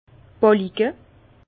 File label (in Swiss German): "ballike", Bas Rhin; vin; poutres